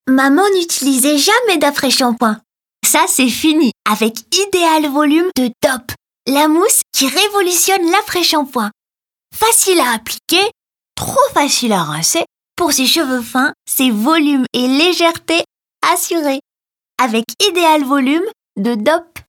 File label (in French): Voix enfant; Dop